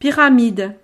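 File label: "pyramide", French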